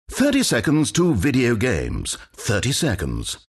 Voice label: announcer count battle; dlc stanleyparable